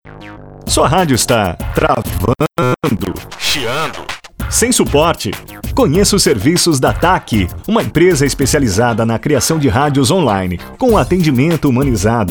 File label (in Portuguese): masculina travando